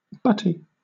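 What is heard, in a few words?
"butty", British English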